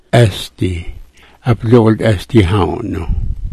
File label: "asdi", Smalgyax